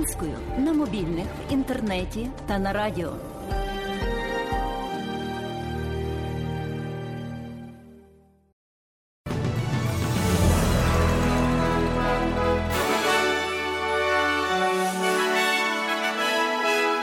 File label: SBS News in Ukrainian; The latest news from Australia and Ukraine, and from rest of the world.